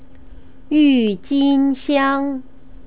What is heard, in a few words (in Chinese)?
"yujinxiang", Chinese pronunciation